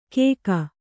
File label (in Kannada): "ಕೇಕ", Kannada